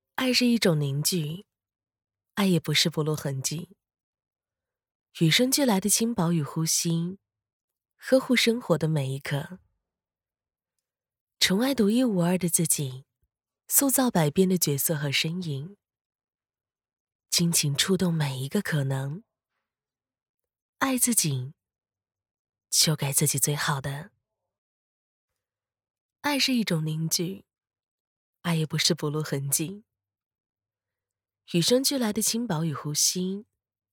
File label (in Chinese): 女 旁白 自然素人 轻薄呼吸